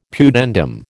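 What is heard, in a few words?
"pudendum", American English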